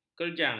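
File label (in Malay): "kerjang", Malay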